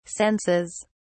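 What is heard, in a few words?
"senses", British English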